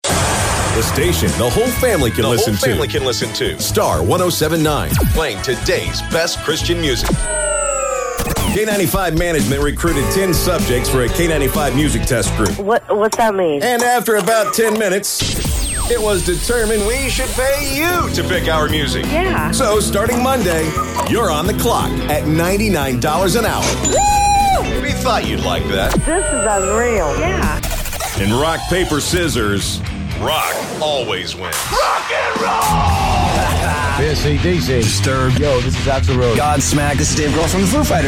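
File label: US English, imaging; GoLocalise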